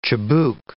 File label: "chibouk", English